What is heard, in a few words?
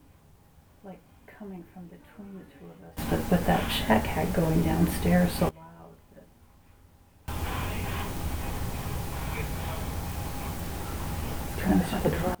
whispers bedroom